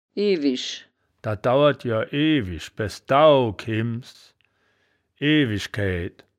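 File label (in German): "iwisch", wittlicher woerterbuch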